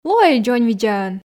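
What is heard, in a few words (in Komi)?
лоӧй дзоньвидзаӧн, Komi, female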